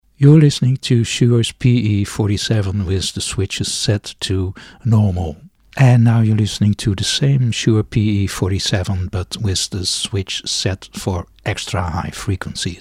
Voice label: Shure PE47 sound UK

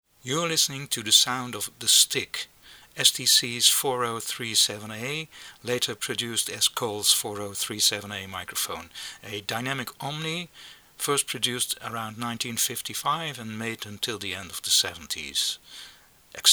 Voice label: STC 4037A Coles 4037A UK

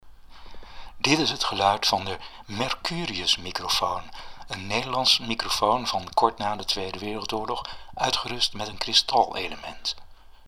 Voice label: Mercurius sound NL

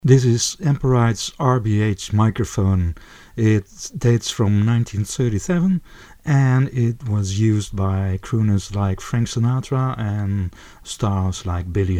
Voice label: Amperite RBH UK

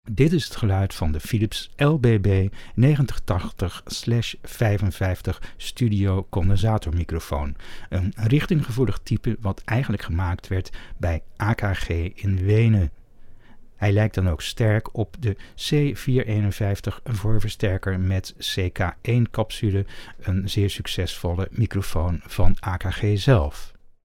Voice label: Philips LBB 9080-55 NL

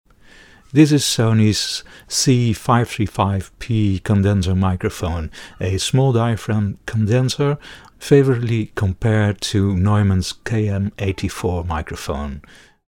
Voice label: Sony C535P UK

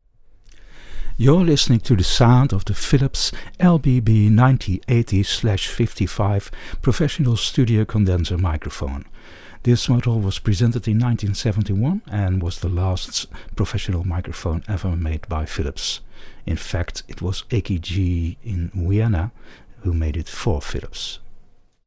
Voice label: Philips LBB 9080-55 UK

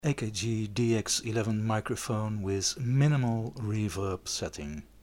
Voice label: AKG DX11 minimal rev

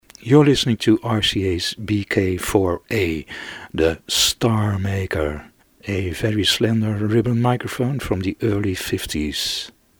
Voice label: RCA BK4A sound UK

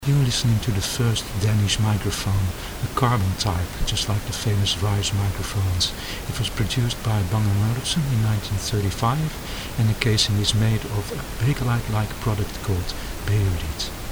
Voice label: Beolit UK

